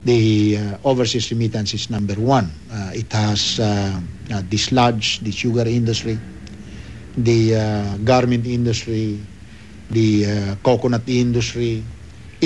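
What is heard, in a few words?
ESL (Philippines male)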